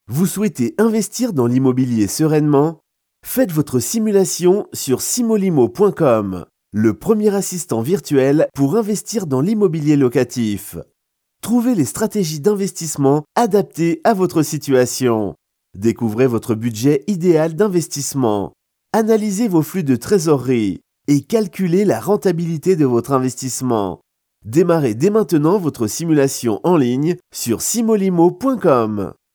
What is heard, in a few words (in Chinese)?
法语 叙述